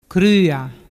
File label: "crua", dialettu